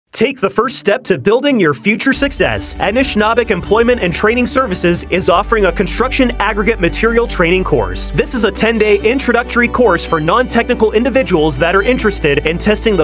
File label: radio ad